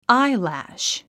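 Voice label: "eyelash", English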